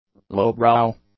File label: "lowbrow", English; adjectives